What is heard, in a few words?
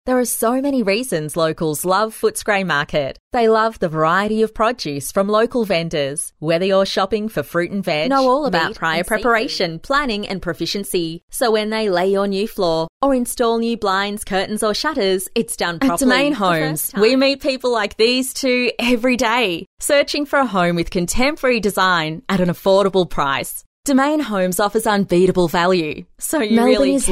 Soft Sell